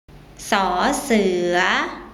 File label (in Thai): "ส เสือ", Thai